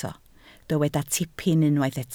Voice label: "tipyn", Welsh

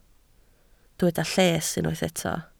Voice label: "lles", Welsh

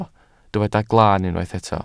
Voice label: "glaan", welsh

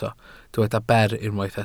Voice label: "ber", Welsh

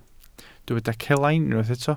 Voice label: "celain", Welsh

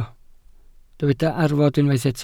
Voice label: "arfod", Welsh

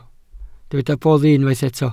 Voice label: "boddi", Welsh

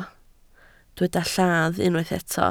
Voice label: "lladd", Welsh

